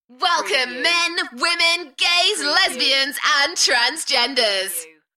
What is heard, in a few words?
UK female, Welcome men women lgbt, Enthusiastic; beanstalk audio